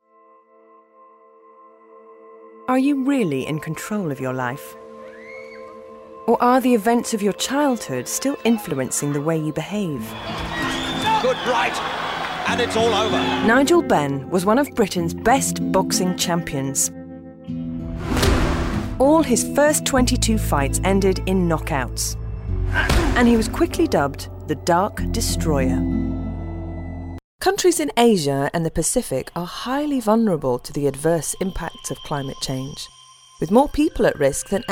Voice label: documentary